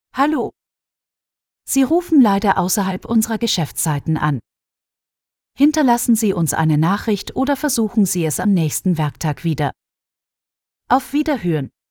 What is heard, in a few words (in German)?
ausserhalb VM FEMALE